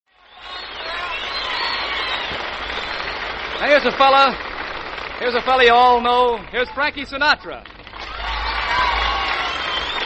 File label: psa - war bond advertising; ww2 promos and commercials